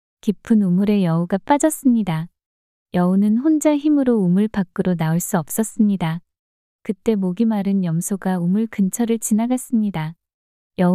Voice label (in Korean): 이야기 여자; 염소가 여우에게 속은